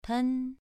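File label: "pen", tone 2, pronunciation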